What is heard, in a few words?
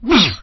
hurt; lego star wars; 4-lom